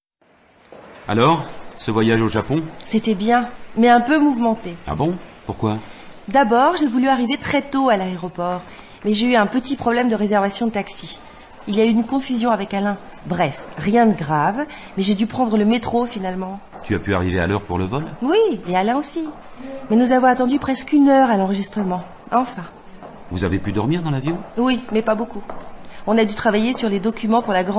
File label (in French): Grammaire en dialogues Niveau débutant